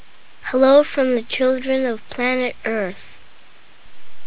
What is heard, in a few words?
greeting in English; Voyager Golden Record